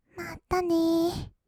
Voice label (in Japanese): "まったねー", kawaii voice; 音ゲー